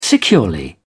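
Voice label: "securely", British English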